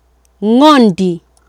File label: "ng'ondi", Swahili